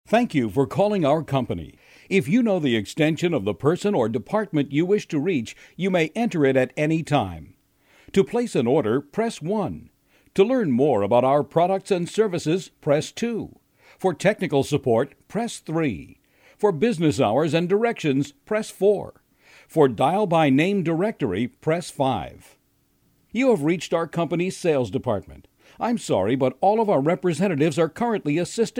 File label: ivr voicemail